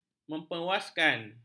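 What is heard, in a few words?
"memuaskan", Malay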